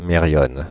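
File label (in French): "mériones", French, Paris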